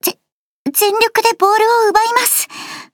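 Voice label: start, Japanese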